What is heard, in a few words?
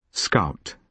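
"scout", British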